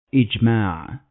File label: "Ijma", Arabic